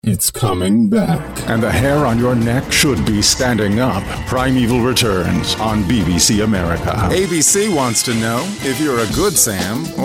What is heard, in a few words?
TV Promo